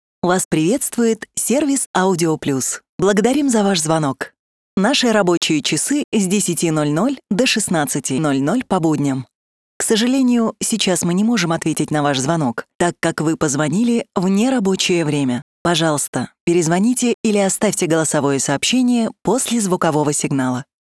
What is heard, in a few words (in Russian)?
IVR